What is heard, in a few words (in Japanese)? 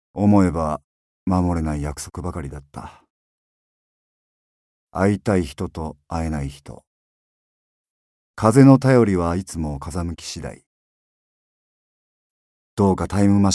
ポエム